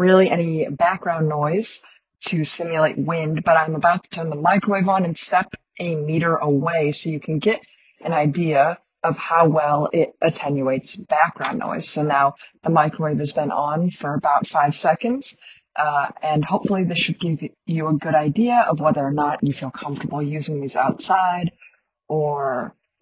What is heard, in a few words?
Samsung Galaxy Buds 2 microphone sample